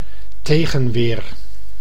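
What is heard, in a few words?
"tegenweer", Dutch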